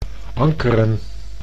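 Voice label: "ankeren", Dutch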